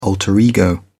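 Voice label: "alter ego", British English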